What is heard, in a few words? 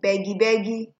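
"begybegy", Nigerian Pidgin, female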